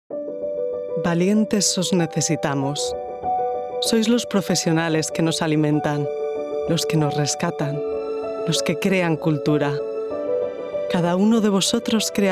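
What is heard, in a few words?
ESEU General, European Spanish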